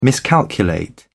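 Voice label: "miscalculate", British English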